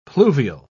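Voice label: "pluvial", British English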